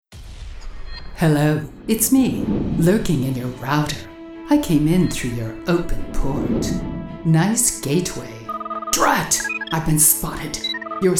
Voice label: ELearning Demo